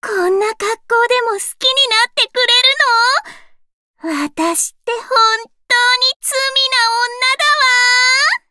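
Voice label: start, Japanese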